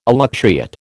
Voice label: "elutriate", American